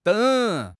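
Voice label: "deng3", Chinese; HSK; HSK2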